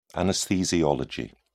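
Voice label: "anesthesiology", British English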